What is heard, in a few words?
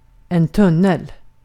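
"tunnel", Swedish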